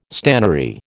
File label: "stannary", American